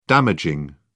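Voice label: "damaging", British English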